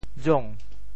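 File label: "jong2", Teochew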